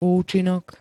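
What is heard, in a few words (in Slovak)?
"účinok", Slovak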